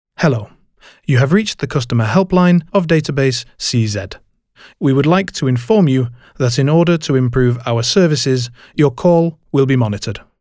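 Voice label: English, male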